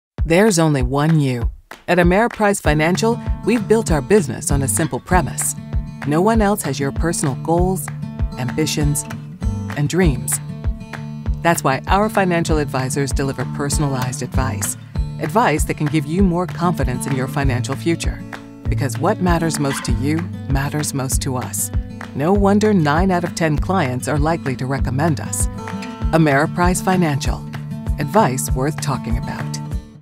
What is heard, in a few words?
spot